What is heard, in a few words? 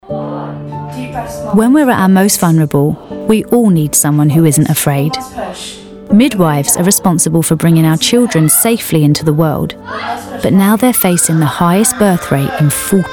Documentary